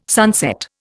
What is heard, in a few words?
"sunset", Indian